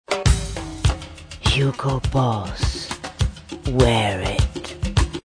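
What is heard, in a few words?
Very Deep Voice, Commercials, British; Hugo Boss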